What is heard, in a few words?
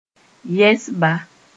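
"yɛsba", Mossi; uncle (mother's side)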